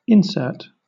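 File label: "insert", British English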